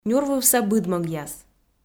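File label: "нюрвывса быдмӧгъяс", Komi, female; kpv audio dict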